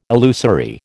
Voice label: "illusory", American